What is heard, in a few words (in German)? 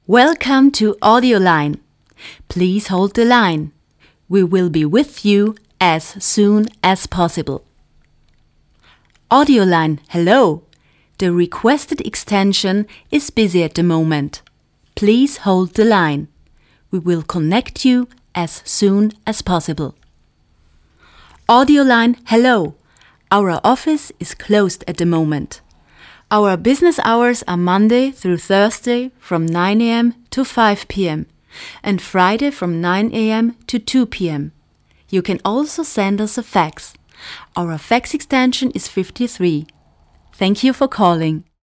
Band Ansage Englisch; Sprecherin für Werbung und Moderation